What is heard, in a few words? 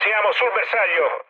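Italian near waypoint mood med, speech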